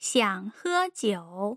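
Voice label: "xiang he jiu", Mandarin Chinese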